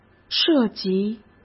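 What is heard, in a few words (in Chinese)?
"涉及", Mandarin Chinese; chinese flashcards; hsk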